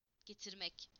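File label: "to bring", Turkish; PictureDictionary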